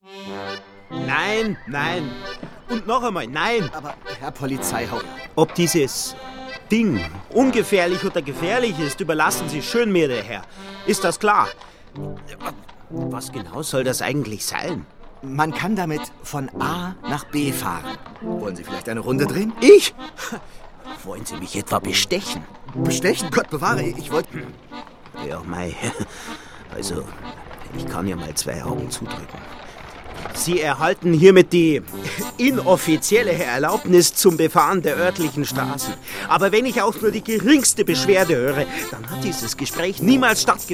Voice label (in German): Polizist bayrisch, hoerspiel